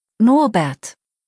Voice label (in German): "Norbert", deutsch, jungennamen